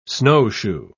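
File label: "snowshoe", English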